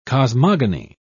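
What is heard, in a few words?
"cosmogeny", British English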